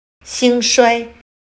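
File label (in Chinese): "兴衰" Mandarin Chinese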